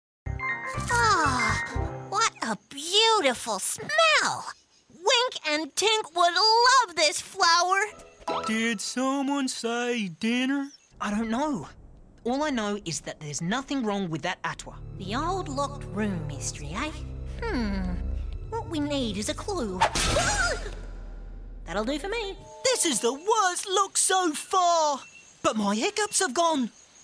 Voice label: Animation